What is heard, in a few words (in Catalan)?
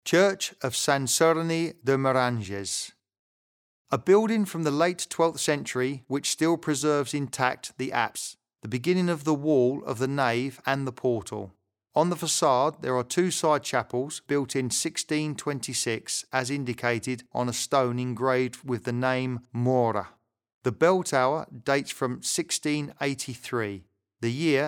locucio angles; sant serni meranges